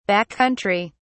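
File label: "back country", British English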